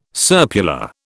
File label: "serpula", British